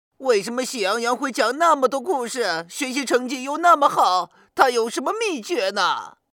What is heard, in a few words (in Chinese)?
男 模仿; 灰太狼